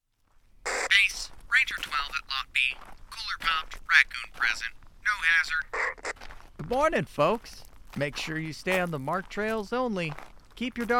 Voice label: Animation, voice actor; Character/Animation; Animation Demo Reel